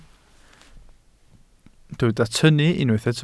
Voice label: "tynnu", Welsh